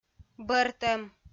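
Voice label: "бӧртӧм", Komi-Zyrian, female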